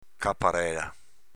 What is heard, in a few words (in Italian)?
"caparela", Veneto; s.f. conchiglia